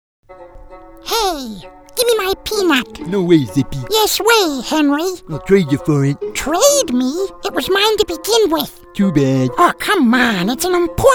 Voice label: Animation

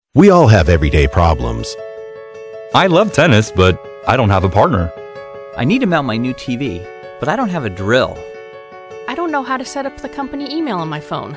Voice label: Explainer Video

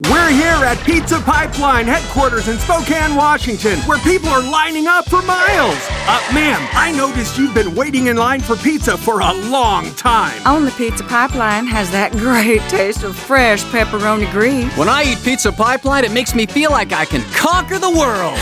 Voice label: Radio Commercial; Pizza Pipeline

